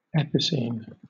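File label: "epicene", British English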